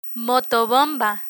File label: "motobomba", Spanish